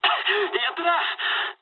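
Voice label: player killed enemy mood med, speech japanese; War Thunder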